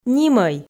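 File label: "нимӧй", Komi, female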